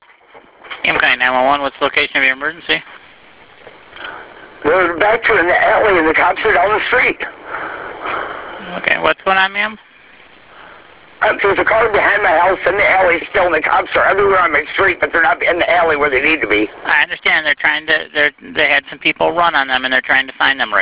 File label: 911 call; Third 911 call; Garage Breakins; Marijuana Thieves